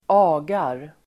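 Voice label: "agar", Swedish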